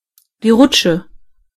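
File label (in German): "slide", German; gcompris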